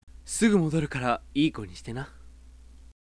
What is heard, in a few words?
sample sweet mid, voice